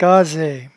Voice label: "casaie", patois jura

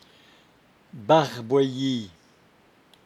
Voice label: "barboeyie", patois jura

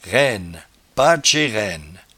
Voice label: "rainnespatche", patois jura

